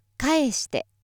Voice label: female